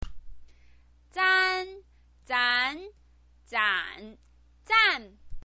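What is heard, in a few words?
"zan", Mandarin Chinese pinyin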